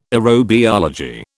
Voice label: "aerobiology", British